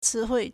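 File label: "cíhuì", Chinese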